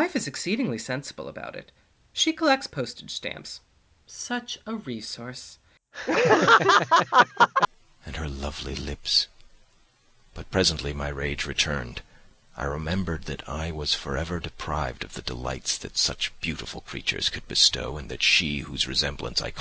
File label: speech with laughter